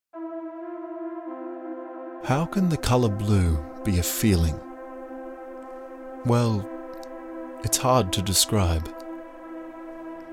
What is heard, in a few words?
Voice Over